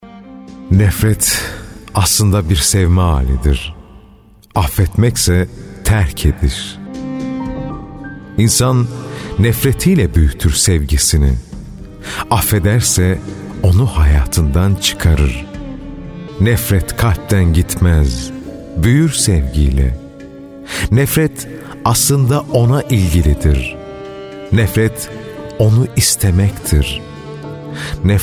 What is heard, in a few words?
Turkish Male